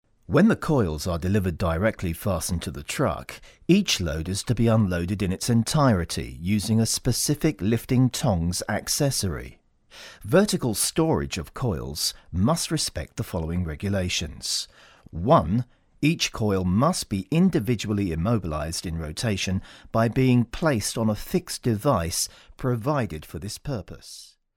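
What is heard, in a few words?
English British, male, e learning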